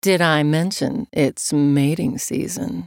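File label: joke, English; Nidalee; Character Voices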